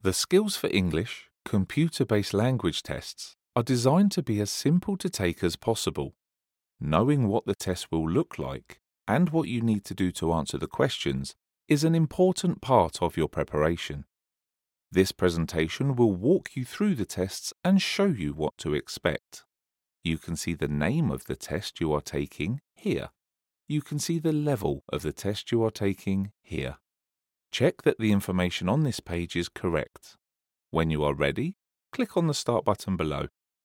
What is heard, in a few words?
Elearning